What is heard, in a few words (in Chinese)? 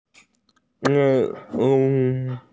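"bai2 yun2" Chinese, female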